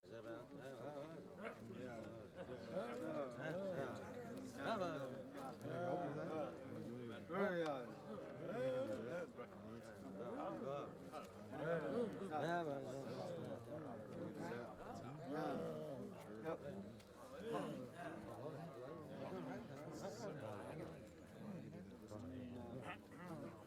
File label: murmuring and talking men